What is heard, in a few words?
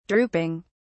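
"drooping", British English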